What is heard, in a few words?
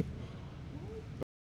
Male Voice; NYCC 2013